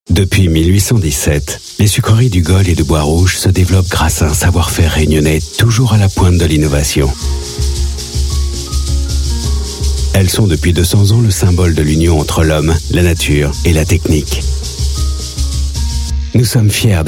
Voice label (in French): Pub TV Posé Elégant; TEREOS